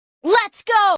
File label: Lets go Notification Tone; Pubg